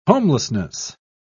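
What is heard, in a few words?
"homelessness", British English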